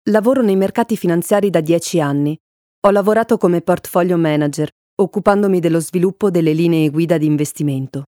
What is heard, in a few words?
italian corporate videos